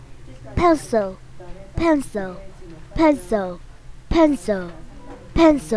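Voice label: "pencil", spoken word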